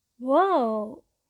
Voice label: "wow" female voice